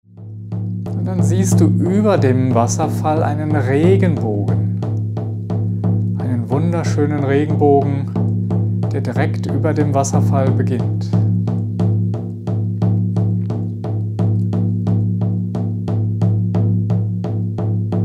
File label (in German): Schamanische Reise Obere Welt Hoerprobe; Schamanische Reise in die Obere Welt